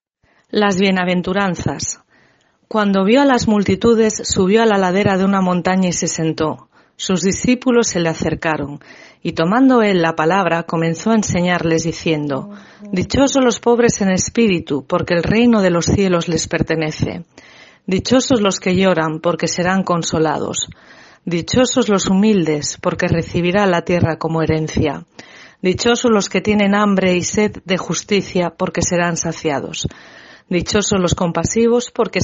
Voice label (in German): Spanisch Seligpreisungen